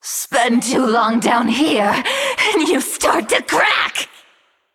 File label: Female Naga Play